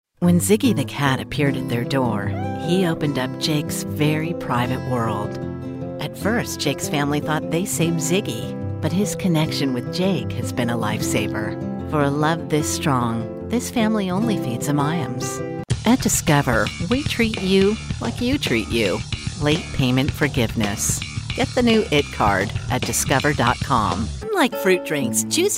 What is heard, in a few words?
Conversational